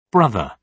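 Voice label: "brother", British English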